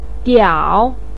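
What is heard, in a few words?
"diao", Mandarin pinyin, tone 3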